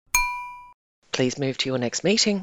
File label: short ding please move to your next meeting